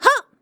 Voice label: battle f